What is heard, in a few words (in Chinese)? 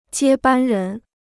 "接班人", Chinese